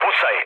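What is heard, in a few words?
Serbian attack position mood low, speech; War Thunder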